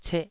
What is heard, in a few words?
"ceh2", taiwanese Syllables